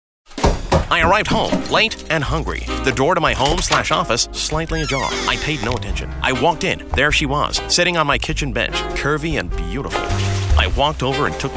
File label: Characters and Accents